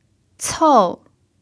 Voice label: "cou4", pinyin

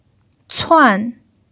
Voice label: "cuan4", Mandarin pinyin

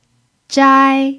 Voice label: "zhai1", Mandarin pinyin

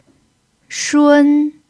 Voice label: "shun1", Mandarin pinyin

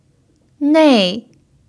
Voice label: "nei4", Mandarin pinyin

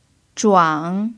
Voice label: "zhuang3", Mandarin pinyin

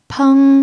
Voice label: "peng1", mandarin pinyin

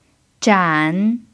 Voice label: "zhan3", Mandarin pinyin

